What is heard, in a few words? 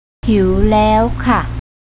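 "hiew", Thai, female; travel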